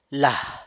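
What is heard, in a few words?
"la", San Lucas Quiaviní Zapotec; name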